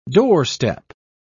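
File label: "doorstep", English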